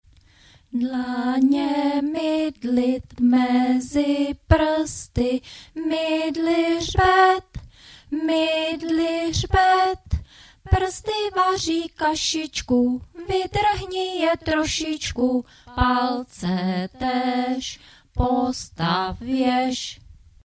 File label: Czech Audio